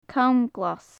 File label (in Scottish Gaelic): "cam glas", gaelic